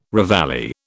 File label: "reveille", British